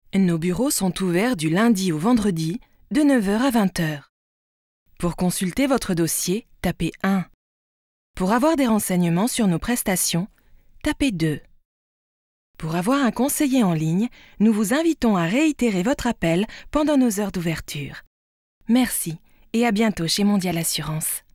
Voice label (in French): Voix spé Répondeur